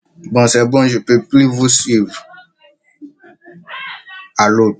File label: voice message